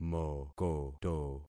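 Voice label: "mokoto", concatenated syllables; Affixing experiment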